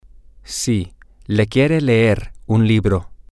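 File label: yes she wants to read a book, Spanish, male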